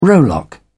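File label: "rowlock", British English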